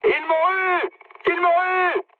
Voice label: aircraft destroyed mood high, speech fr

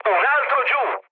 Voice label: player killed enemy mood med, speech it

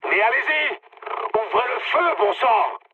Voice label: attack position mood med, speech fr; War Thunder

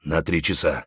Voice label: flank right, voice; Escape from Tarkov

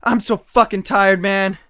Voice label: tired, usec2; Escape from Tarkov

